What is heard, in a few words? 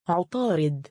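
"3u6aarid" male, Arabic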